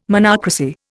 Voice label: "monocracy", Indian